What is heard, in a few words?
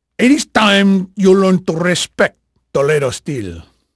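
Spanish male, voice; Pirates Of The Caribbean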